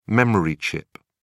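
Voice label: "memory chip", British English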